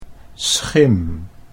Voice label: "schim", Dutch